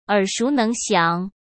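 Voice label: "耳熟能详", Mandarin Chinese; hsk; chinese-flashcards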